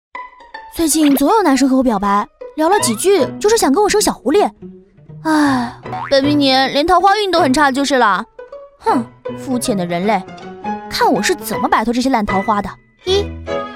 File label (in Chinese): 男女拒绝; 飞碟说